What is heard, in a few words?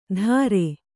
"ದರೆ", Kannada